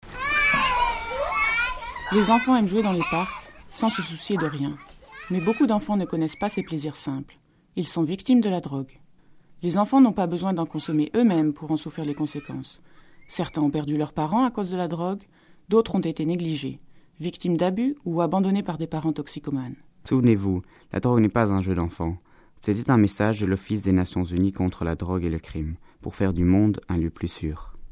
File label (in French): French